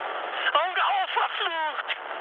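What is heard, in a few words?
German player friendly fire mood high, speech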